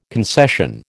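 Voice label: "concession", American English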